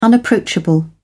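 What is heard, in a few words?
"unapproachable", British English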